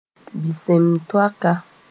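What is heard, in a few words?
"bɩsem-toaka", Mooré; apostrophe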